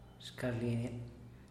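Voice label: "shcarlenia", Teotitlán del Valle Zapotec; alma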